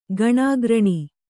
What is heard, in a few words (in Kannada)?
"ಗಣಾಗ್ರಣಿ", kannada